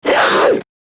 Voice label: Struggle, Battle Barks; Warframe; Grineer Manic